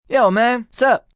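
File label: "Yo Man Wassup" male; Greetings; VoiceBox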